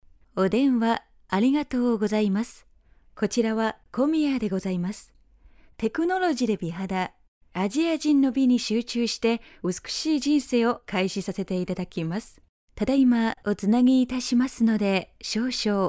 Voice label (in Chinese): 彩铃